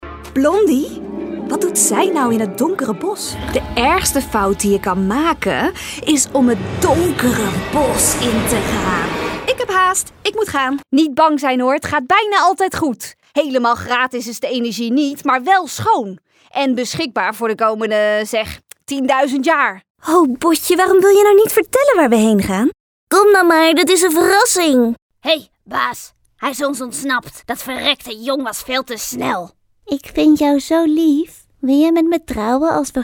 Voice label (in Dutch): animatie